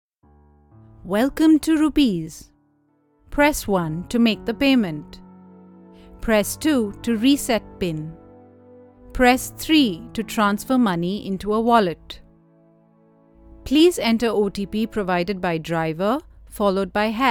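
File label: IVR, English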